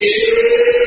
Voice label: "yeah", group of men